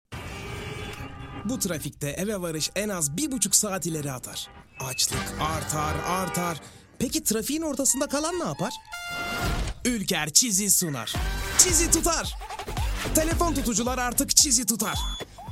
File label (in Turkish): turkce erkek